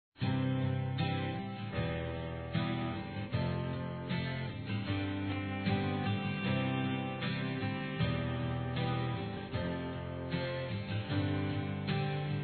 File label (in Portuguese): Amor Inesquecível Masculino; Telemensagens